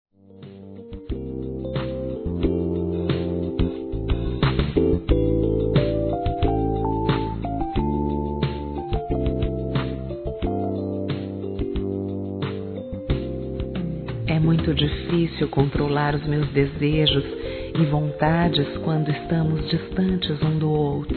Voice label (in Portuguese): AMANTES F; Telemensagens; Sensuais; fem